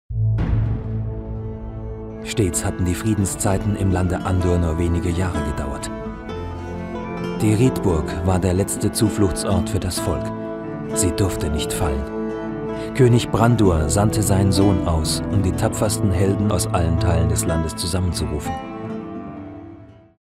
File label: Trailer; Andor